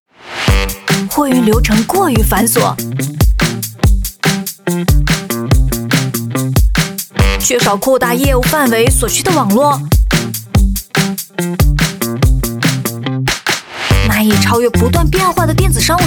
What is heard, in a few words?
Mandarin China Commercial; FedEx